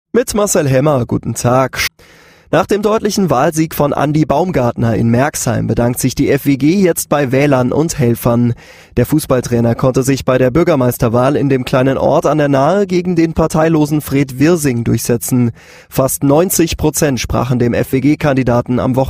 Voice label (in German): Nachrichten; RPR